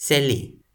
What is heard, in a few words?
"seli", Toki Pona